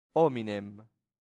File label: "hominem", latin; santillana